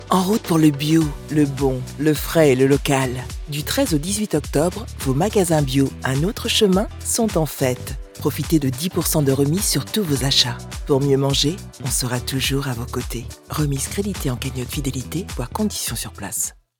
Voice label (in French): pub radio locale, voix off; spots radio voix off